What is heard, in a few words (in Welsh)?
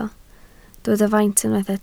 "faint", Welsh